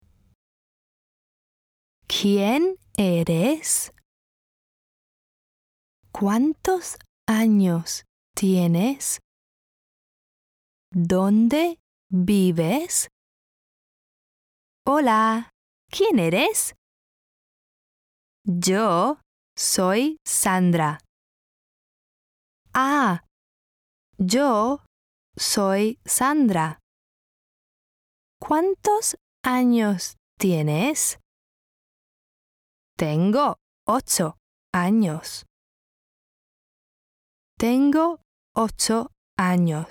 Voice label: KS2 Speaking Spanish